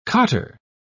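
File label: "cottar", British English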